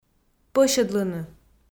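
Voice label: "пӧсьӧдлыны", Komi, female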